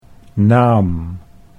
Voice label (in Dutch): "naam", Dutch